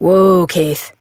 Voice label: Horse Stop Walk Adult; Duviri

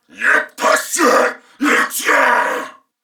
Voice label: Attack Male, en; Corpus Venus; Warframe; CorpusVenusMaleB

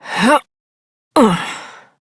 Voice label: Horse Dismount Adult, Dialog; Duviri

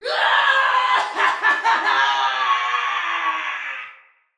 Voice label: Battle Cry, Male, en; Barks; Dialog; Sinecure Banneret

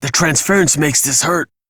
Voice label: Low Health Operator Voice, en; Operator Mission

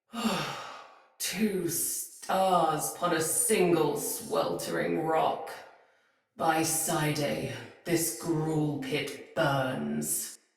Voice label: Patrol Spoken, Soldier Female; Battle; Barks; Dialog; Sinecure Soldier Female A

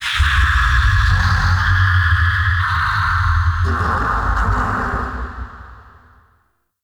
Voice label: Weather Angry Voice; Ambience; Gameplay; Duviri

